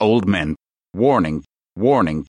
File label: Old Men Warning War